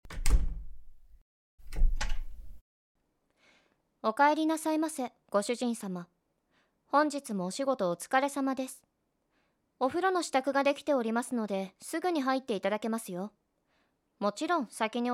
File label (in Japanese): ツンデレメイド; SEあり